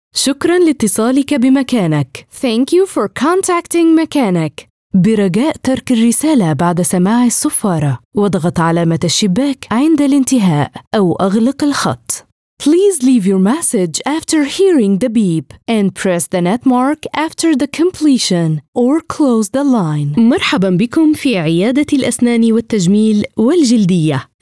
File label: IVR Arabic English